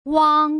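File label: "wang", Putonghua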